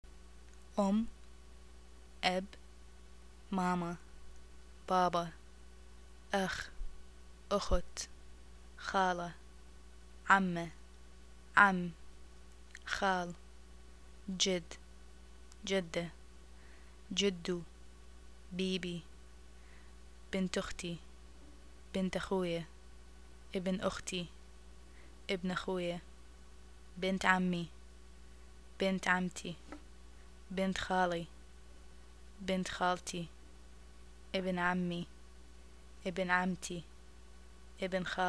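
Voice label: family, Iraqi arabic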